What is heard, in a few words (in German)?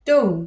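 "dumm", German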